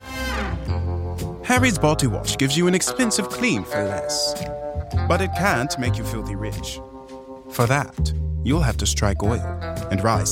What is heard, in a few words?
Bodywash British; British, Globalish, Quirky; Harry's